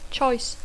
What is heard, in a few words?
"choice", English